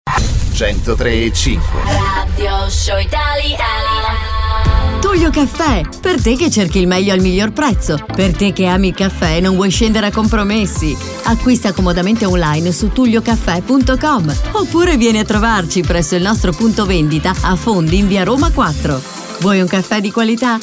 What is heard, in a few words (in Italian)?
spot radio